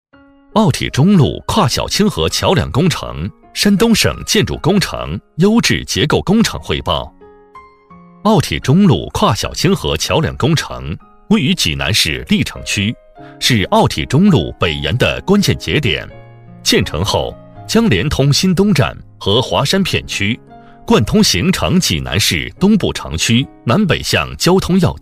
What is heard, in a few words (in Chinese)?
男 PPT汇报 稳重厚重; 男B2-厚重有力; 《工程汇报》